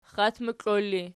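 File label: "xxatmoklor" ergative, Archi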